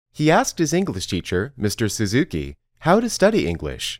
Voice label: Dictation